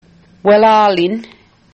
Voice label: thanks, Mi'kmaq